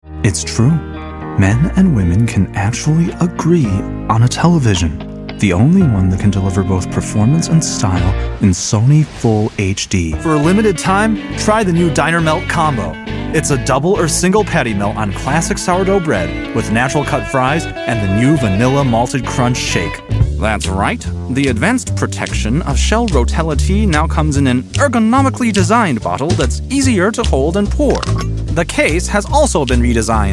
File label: Voice Over Artist, commercial; Cleveland